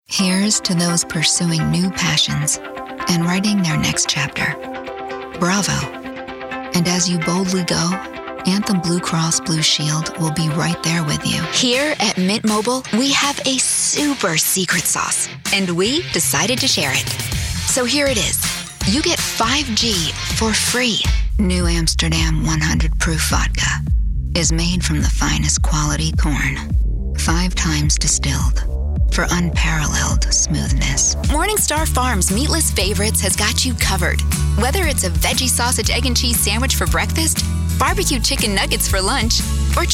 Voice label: Commercial